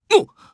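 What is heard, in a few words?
Vox Japanese; kingsraid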